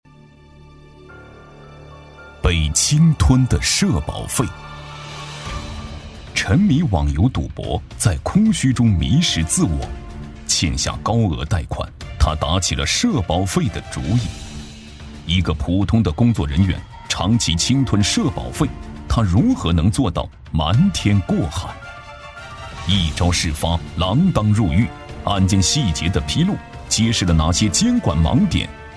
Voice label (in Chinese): 男 反腐案件; 被侵吞的社保费